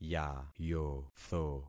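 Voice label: "yayotho", concatenated syllables; Affixing experiment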